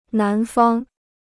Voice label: "南方", Chinese